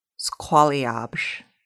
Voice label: Nisqually, Lushootseed

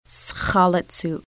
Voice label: "picture", Lushootseed

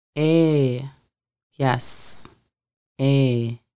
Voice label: yes, Lushootseed; Conversational Lushootseed

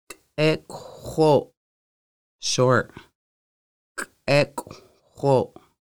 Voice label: short, Lushootseed

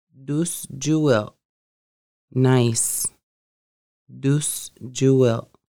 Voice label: nice, conversational Lushootseed

